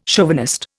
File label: "chauvinist", Indian